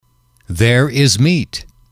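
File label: "there is meat", English, male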